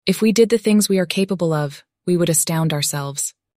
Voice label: Excellent for Audio Book; Luna